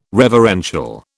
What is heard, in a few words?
"reverential", British